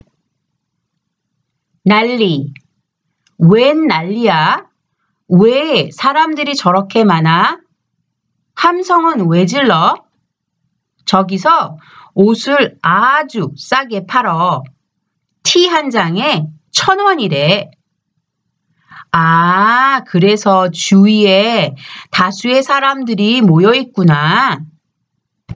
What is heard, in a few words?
conversation; K-Pop Volume 3